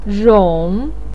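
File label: "rong", Chinese pinyin, third tone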